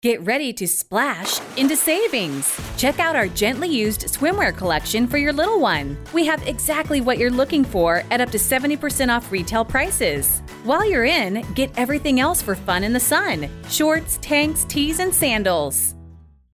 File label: Promotional Swimwear Promotion W